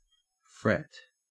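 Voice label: "fret", Australian English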